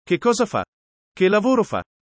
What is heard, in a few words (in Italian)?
chiedere formale, italiano